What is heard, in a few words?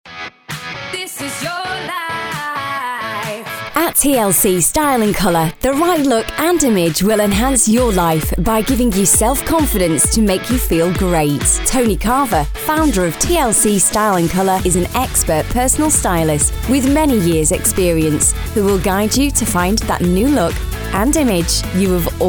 commercial; TLC Style and Colour